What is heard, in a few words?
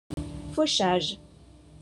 "fauchage", French